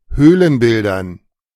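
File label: "Höhlenbildern", German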